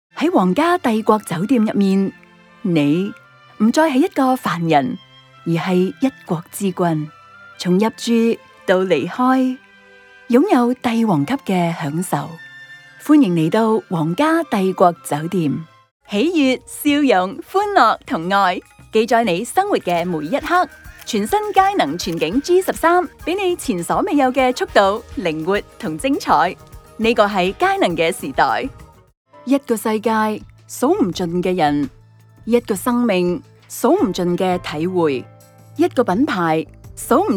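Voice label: Canto Tvc, Cantonese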